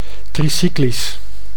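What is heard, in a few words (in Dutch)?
"tricyclisch", Dutch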